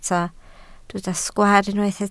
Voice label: "sgwaar", Welsh

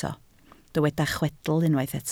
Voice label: "chwedl", Welsh

